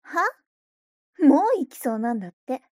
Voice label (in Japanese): mob 女子 台詞